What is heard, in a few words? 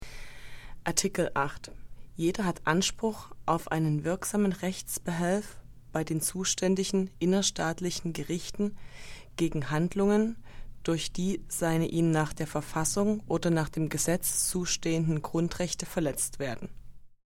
German